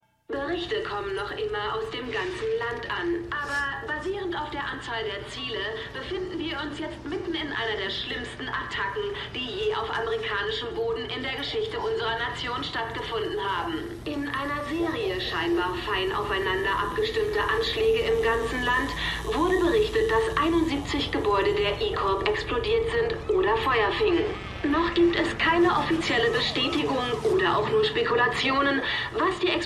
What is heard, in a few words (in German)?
Nachrichtensprecherin